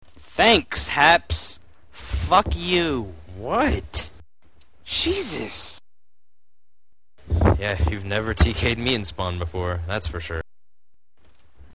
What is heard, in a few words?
undercover ventrilo recordings halftime; Gamewyze; vs mTs; DoD Uncensored